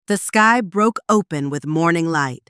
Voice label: voice sample, A middle-aged female with an African-American accent. Calm with a hint of rasp.; Aria; Shot Studio